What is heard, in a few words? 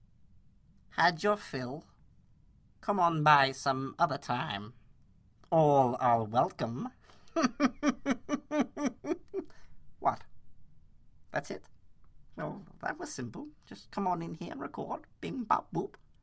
high pitch villain